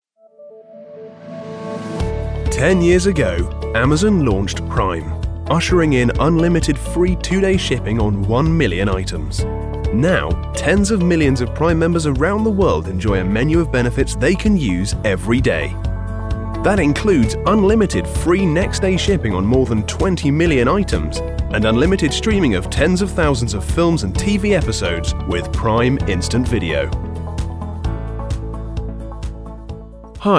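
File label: English, male; lektoring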